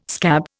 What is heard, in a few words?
"scab", Indian